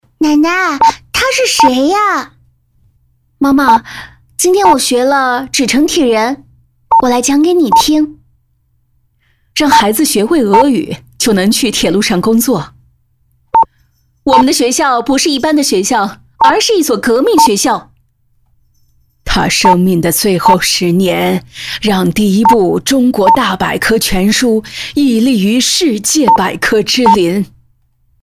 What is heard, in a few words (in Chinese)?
角色奶奶